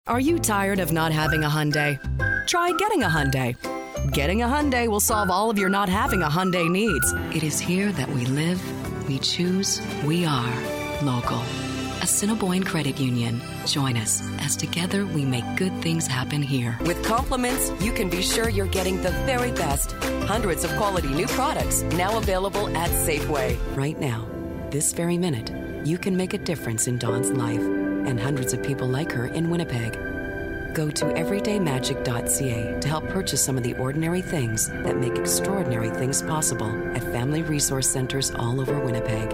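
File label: Commercial Female, Warm, Sultry, Professional, Friendly, Natural; Commercial Voiceover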